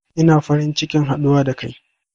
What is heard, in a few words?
meet, hausa